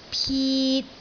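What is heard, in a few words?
"pit3", Cantonese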